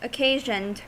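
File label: "occasioned", US English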